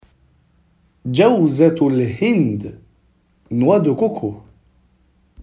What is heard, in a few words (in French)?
noix de coco en arabe